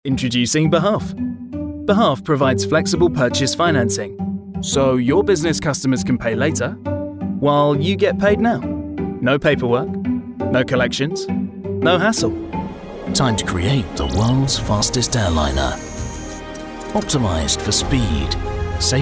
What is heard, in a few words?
Corporate Reel